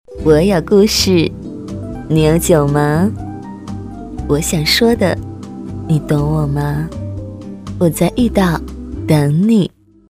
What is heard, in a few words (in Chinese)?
女国 动画 模仿; 模仿林志玲我在遇到等你